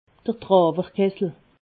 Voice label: "drawerkessel", alsace